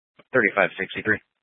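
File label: Police Dispatch, Grand Junction, Mesa County, Colorado; Police 1 Dispatch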